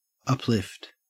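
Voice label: "uplift", Australian English; pronunciations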